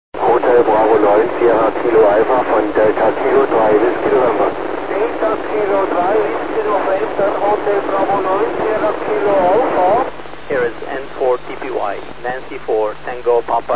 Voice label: so-41 qso